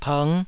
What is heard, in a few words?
"png5", Taiwanese